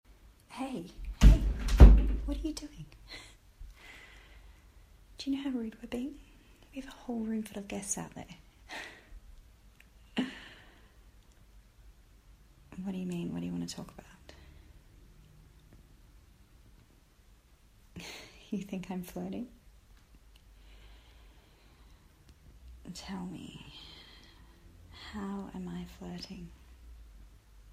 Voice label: Whispers, Wet noises; Possessive; Quickie

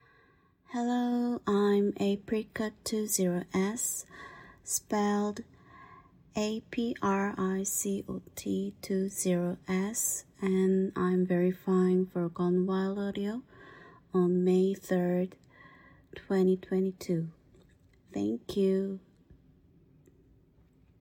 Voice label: F, Verification

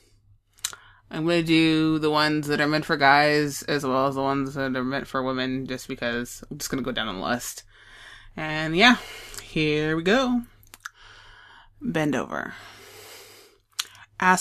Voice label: Accent Challenge

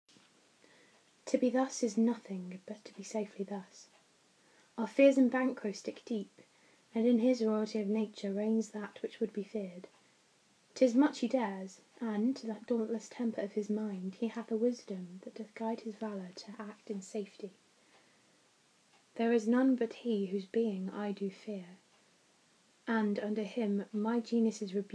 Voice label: Macbeth monologue

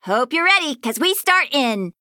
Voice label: Countdown Remain, Announcer

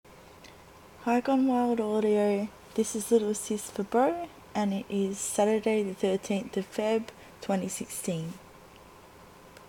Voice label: Australian accent, Shy

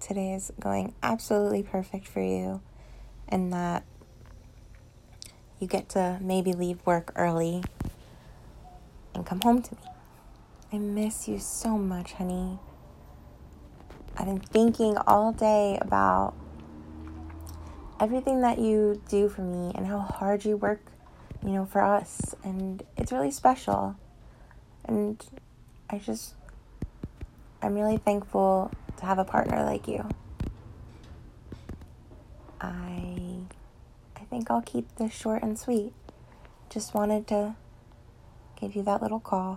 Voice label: The Voicemail

